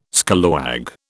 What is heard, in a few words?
"scallawag", British